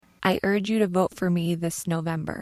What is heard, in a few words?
voice pitch low